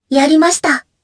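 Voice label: Vox Happy Japanese; Kara; kings raid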